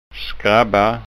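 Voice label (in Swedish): "skrabba", Scanian dialect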